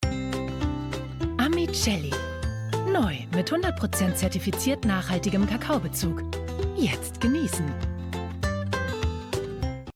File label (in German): TV Spot; Amicelli